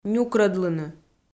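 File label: "нюкрӧдлыны", Komi, female; kpv; audio dict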